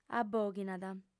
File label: "abòghinat", audiofem